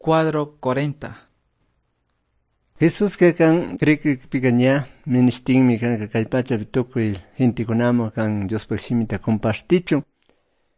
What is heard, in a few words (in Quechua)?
good news quichua cayambe